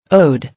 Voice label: "Ode", English

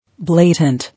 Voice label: "Blatant", English

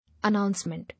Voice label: "Announcement", English

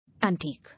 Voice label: "Antique", English

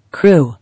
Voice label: "Crew" English

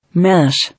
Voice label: "Mash", English

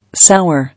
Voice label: "Sour", English

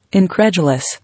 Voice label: "Incredulous", English

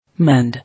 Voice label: "Mend", English

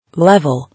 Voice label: "Level", English

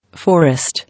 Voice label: "Forest", English

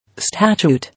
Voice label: "Statute", English

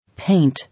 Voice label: "Paint", English

